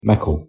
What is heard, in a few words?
"mickle", Scots